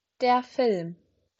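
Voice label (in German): "Film", German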